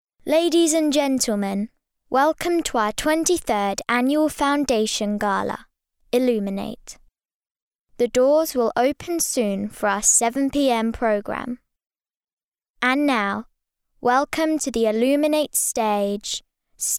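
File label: English British, girl, child voice over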